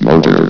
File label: "motor", vox; valve